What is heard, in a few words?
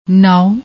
"naao", Punjabi